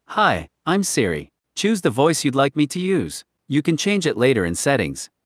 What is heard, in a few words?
en-US; iOS 26